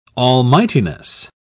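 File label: "almightiness", British English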